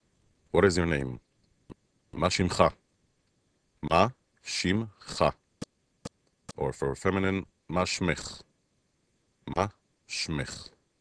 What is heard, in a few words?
Hebrew Name